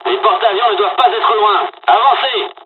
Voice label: dialog, dialogs french; War Thunder; midway